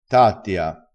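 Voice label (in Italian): "tattia", vocabolario dialettale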